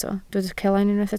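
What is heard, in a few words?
"celain" Welsh, spoken word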